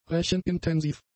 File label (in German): "rechenintensiv", German; adjectives